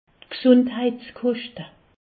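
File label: "gesundheitskoschte", Haut Rhin; medecine; coût de santé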